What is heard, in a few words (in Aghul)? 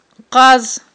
"къаз", aghul